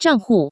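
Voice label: "zhanghu", Taiwan Mandarin; bank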